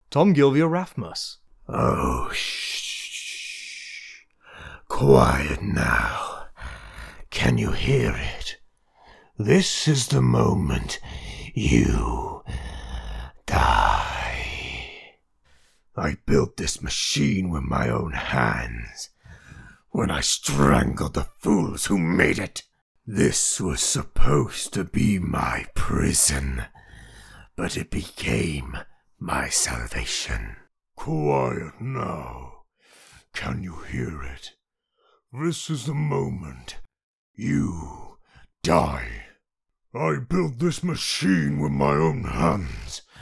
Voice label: male